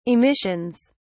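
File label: "emissions", British English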